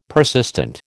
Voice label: "persistent", American